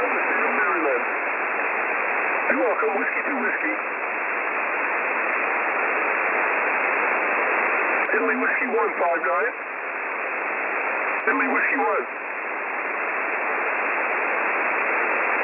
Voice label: qso special d day event; 21240khz; w2w

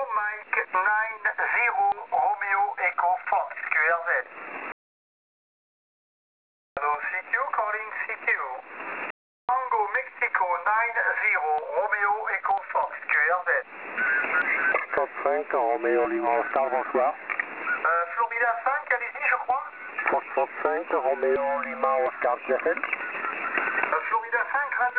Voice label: qso 7120

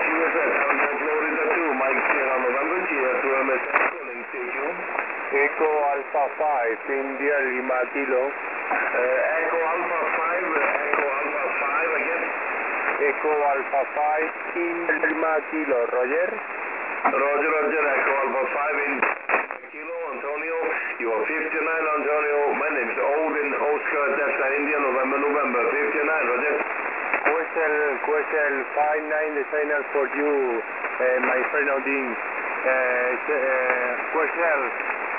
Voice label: qso 14234khz

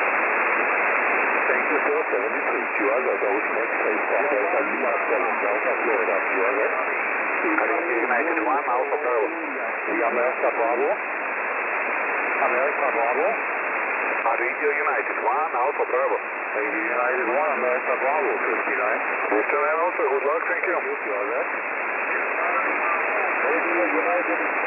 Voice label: qso 18123khz, Radio

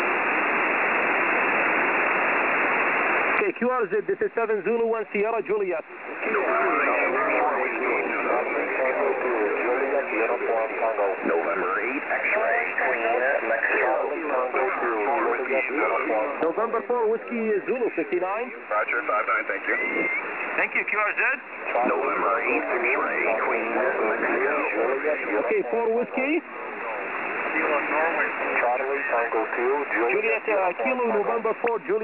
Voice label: qso pileup 14240, Radio

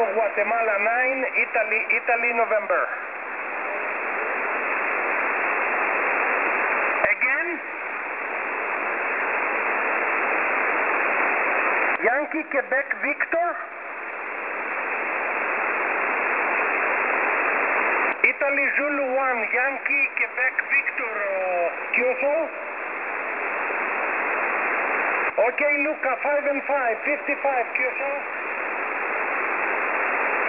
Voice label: qso 21286.9 khz, Radio

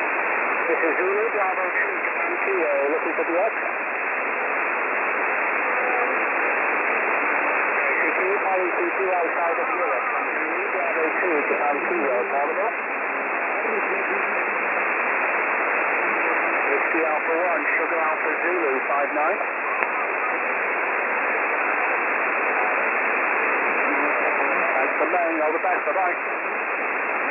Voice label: qso 21235 khz, Radio

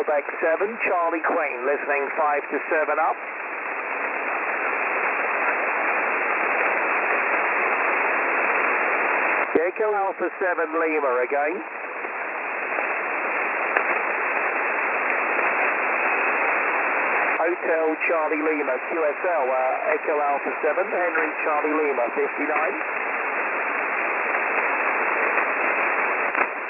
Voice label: cq 14260khz

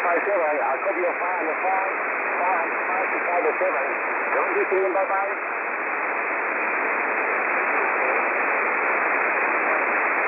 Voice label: qso pileup; 21250khz

